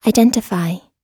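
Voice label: "identify", English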